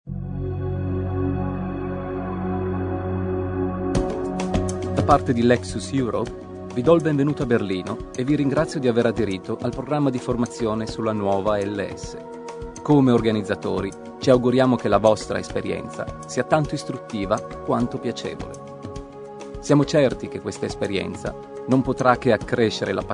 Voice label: Voiceover, italian actor; Lexus; Citylit - London